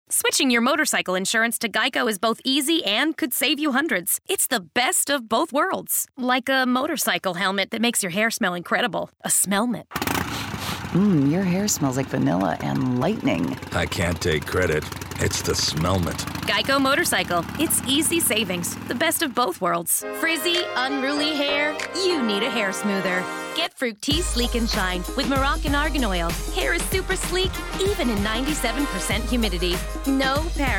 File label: commercial women